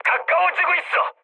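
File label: Korean near waypoint mood high, speech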